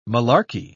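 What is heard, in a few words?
"malarky", British English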